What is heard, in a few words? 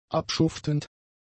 "abschuftend", German; adjectives